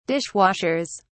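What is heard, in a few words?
"dishwashers", British English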